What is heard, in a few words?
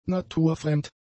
"naturfremd", German; adjectives